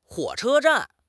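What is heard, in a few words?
"huo3 che1 zhan4", Mandarin Chinese; HSK2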